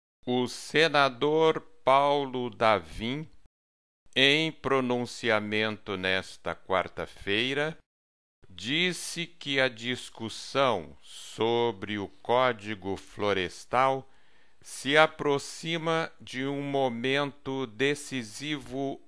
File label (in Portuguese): em ritmo lento; o senador paulo davim